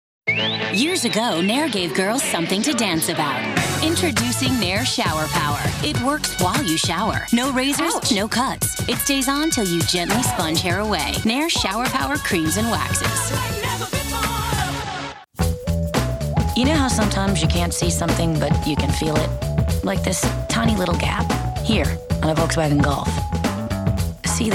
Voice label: commercial, women